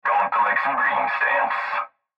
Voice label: Cb Trucker Radio; Radio Voice Sound Effects; Blastwave